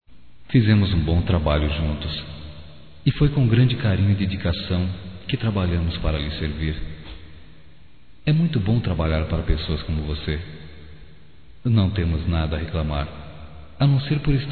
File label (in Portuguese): Masculino; Sentimos Sua Falta; Telemensagens 2017; Só Voz